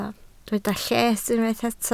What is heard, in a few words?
"lles", Welsh